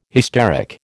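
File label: "hysteric", American English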